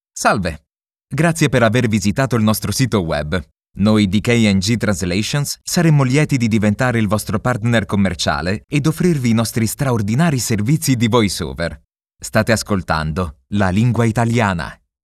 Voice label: italian male

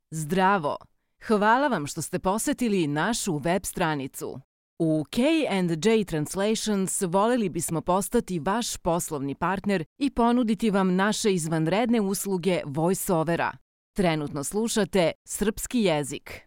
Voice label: serbian female

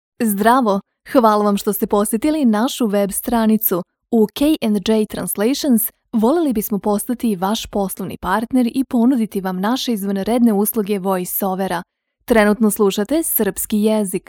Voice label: serbian female

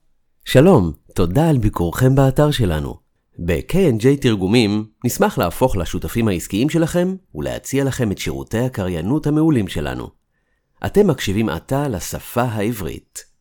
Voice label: hebrew male

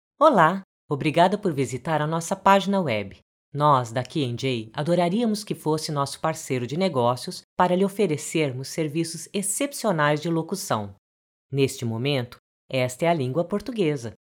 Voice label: portuguese female

